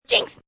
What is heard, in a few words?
"Jinx" Kids, child; Talking; VoiceBox